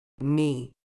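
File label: "knee", British English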